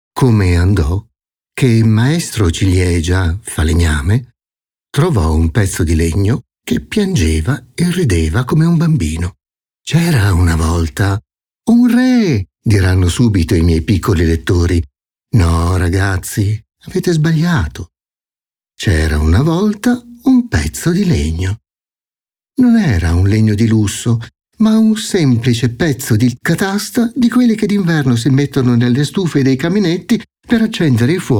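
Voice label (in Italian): Favola, voci maschili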